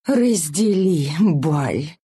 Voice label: attack, ru; dota2